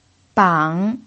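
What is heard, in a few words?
"bang", pinyin, third tone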